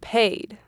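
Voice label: "paid", Native, American English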